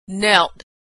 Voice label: verb "knelt", pronunciation